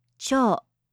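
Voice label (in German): "Cho", japanische Mädchennamen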